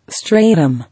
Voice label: "Stratum", English; pl. strata

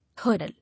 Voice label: "Hurdle", English

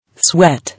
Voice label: "Sweat", English

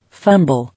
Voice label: "Fumble", English

